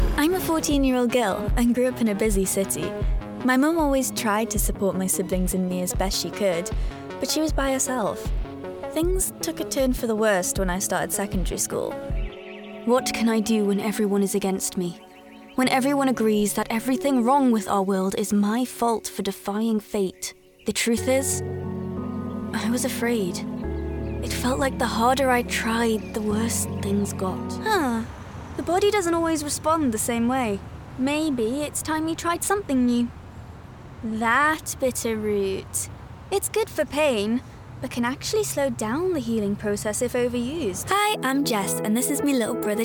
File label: english female voices british accent